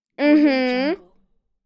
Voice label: girl saying mmhm